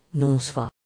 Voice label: good night, Breton; Noz vat